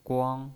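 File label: "guang", Mandarin Chinese, first tone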